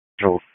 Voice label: FIRE OPS, p25; FIRE OPS 4; wake